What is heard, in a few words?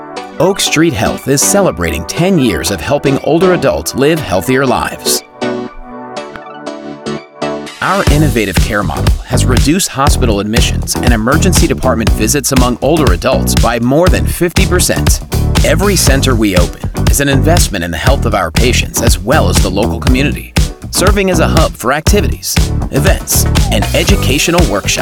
Explainer, healthcare voiceover; Oak Street Health